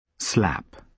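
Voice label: "slap", British English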